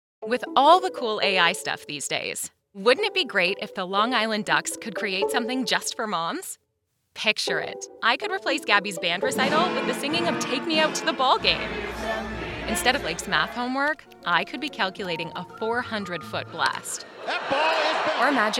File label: Radio Spot